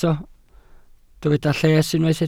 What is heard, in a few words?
"lles", Welsh